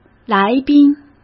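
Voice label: "来宾", Mandarin Chinese; hsk; chinese-flashcards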